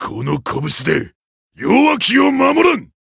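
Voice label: Voice variable chant, Japanese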